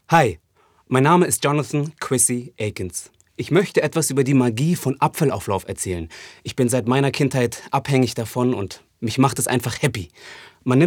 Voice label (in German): Natuerliche Stimme; glatt